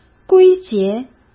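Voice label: "归结", Mandarin Chinese; chinese-flashcards; hsk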